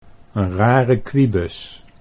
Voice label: "'n rare kwibus", Dutch

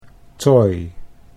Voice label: "toy", Dutch English

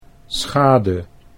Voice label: "schade", Dutch

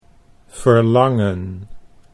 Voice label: "verlangen", Dutch

